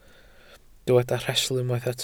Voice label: "rhestl", Welsh

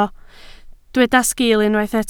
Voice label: "sgil", Welsh

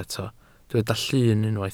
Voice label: "llun", Welsh

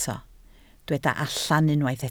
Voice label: "allan", Welsh

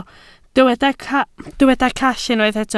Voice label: "call", Welsh

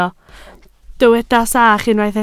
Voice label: "sach", Welsh

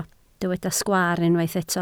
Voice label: "sgwaar", Welsh

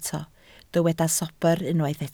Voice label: "sobr", Welsh

